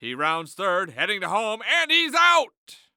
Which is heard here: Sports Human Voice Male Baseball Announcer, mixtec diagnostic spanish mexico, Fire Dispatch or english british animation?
Sports Human Voice Male Baseball Announcer